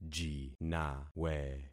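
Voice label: "jinawe", concatenated syllables; Affixing experiment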